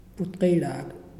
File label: "ash", Muyuw; Lae Unitech